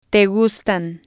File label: "te gustan", spanish